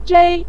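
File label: "zhei" neutral tone, Mandarin Chinese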